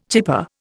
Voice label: "chipper", Indian

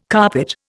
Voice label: "carpet", Indian

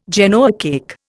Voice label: "Genoa cake", Indian

